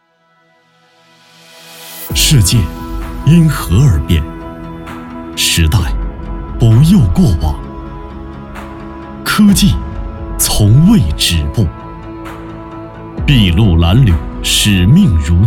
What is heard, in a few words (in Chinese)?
男 宣传片科技